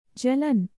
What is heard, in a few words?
"Jalan", hindi; indonesian boy names